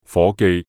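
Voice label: "夥記", Cantonese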